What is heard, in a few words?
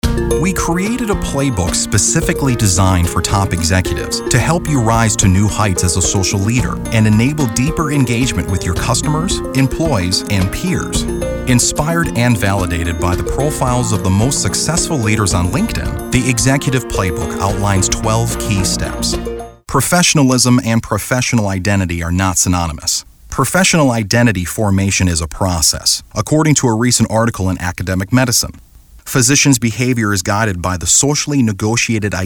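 narration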